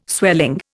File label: "swelling", Indian